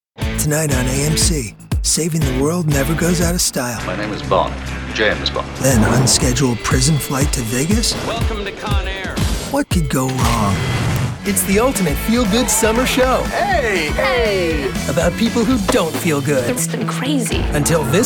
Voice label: men promos